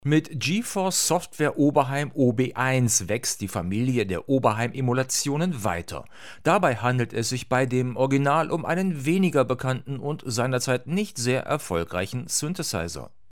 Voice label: Rode Interview Pro Wireless Pro Autogain HPF 0